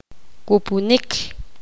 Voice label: poqomam; soplar